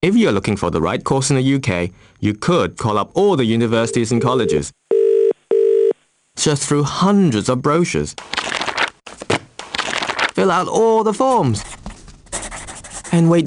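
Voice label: Chinese Cantonese Accented English; Golocalise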